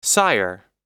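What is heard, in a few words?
"sire", English word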